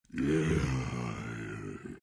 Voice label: tiny anger; dota